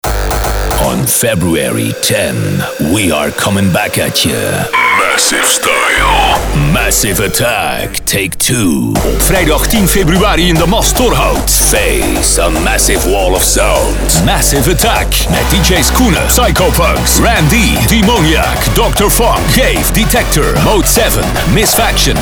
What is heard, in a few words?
party commercial; Radiospot laten maken bij Breakout